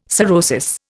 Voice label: "sorosis", Indian